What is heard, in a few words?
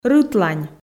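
"рытлань" Komi, female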